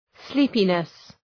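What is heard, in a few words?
"sleepiness", English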